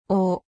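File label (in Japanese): "を", 日本語; 五十音基礎聽解題目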